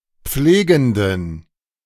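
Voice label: "pflegenden", German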